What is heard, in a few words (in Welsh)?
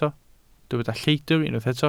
"lleidr" Welsh